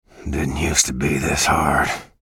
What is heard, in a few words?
chatter negative; respawn; bjblazkowicz